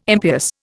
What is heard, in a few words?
"impious", Indian